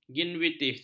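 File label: "gingivitis", Malay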